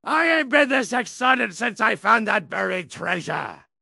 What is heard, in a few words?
happy; fertig classic games; blackwind